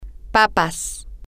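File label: potatoes, Spanish, female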